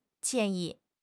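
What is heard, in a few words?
"jian4 yi4", Chinese; 汉语口语新时代-初级下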